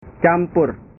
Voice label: "campur", bahasa